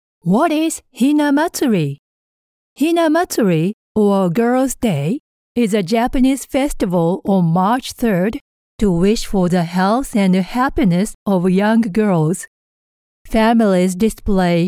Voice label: ボイスサンプル 英語

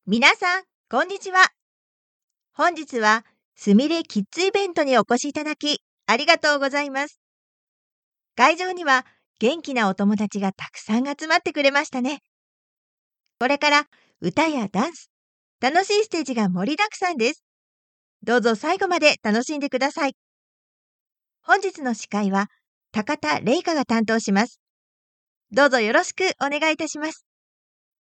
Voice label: キッズイベント司会

